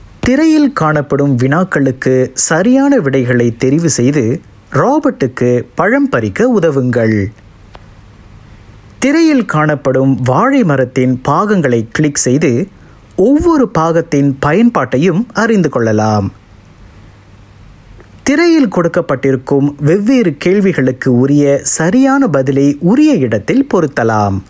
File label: Tamil SG Clean VO